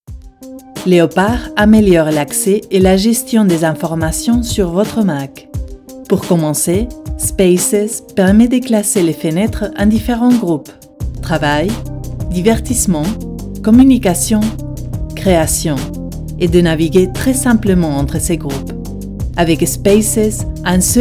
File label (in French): VO Francais INSTITUTIONNEL DROIT INFORMATIF; Corporate